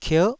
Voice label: "kier9", taiwanese syllables